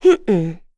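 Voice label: Vox Deny, voices heroes English; Laudia; kingsraid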